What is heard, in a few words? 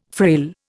"frail", Indian